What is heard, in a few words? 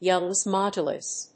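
"Youngs Modulus", English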